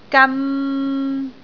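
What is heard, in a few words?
"gan3", Cantonese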